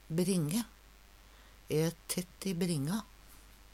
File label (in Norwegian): "bringe", Numedalsmål; Substantiv hokjønn